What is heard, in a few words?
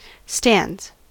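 "stands", US English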